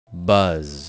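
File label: "buzz", English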